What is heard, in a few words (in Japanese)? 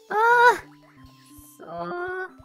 ダメージボイス; ドンキーコング６４